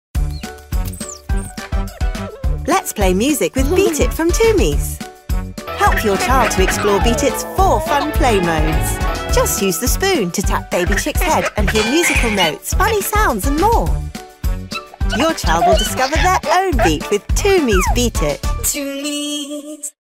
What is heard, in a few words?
GB fem TVC